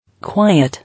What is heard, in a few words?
"Quiet", English